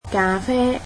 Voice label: coffee, cantonese